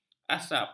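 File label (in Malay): "asap", Malay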